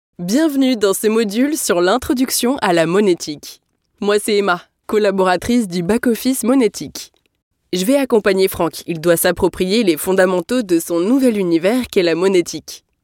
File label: french campaign voice elearning